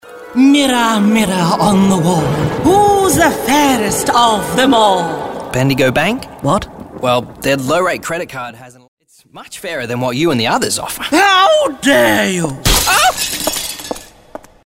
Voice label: national banking ad